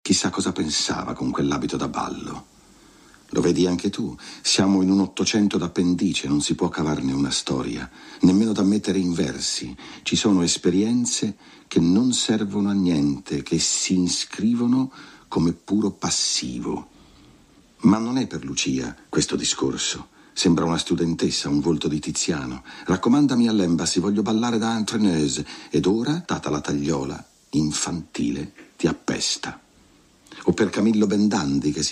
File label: Italian 1 minute